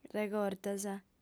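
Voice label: "regortas", female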